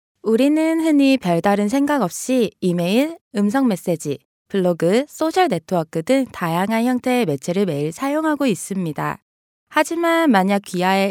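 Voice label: korean female narration; voice demos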